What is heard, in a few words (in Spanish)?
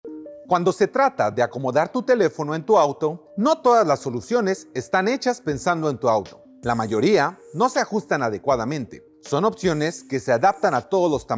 Spanish; Windshield Fone